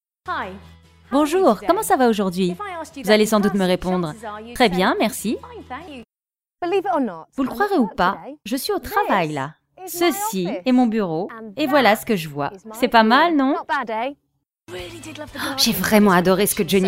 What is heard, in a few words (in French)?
voice-over reportage